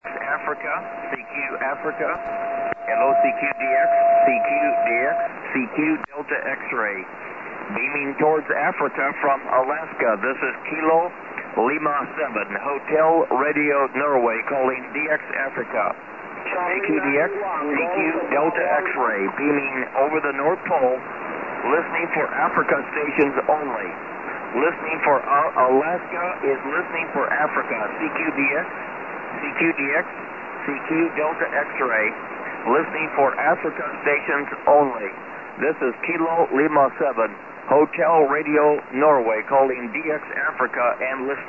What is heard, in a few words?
20M SSB